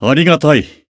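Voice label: Voice healed, Japanese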